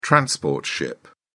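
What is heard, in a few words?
"transport ship", British English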